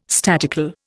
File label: "statical", Indian